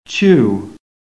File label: "CHEW", american; PHONETIC